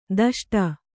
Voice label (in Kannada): "ದಷ್ಟ", kannada